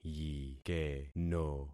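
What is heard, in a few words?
"yikeno", concatenated syllables; Affixing experiment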